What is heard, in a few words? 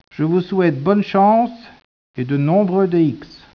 speech, French